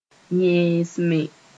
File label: "yẽesme", Mooré